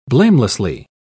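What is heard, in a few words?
"blamelessly", British English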